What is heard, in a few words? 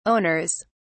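"owners", British English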